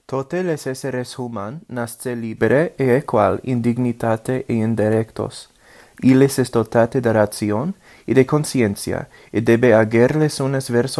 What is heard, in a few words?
udhr interlingua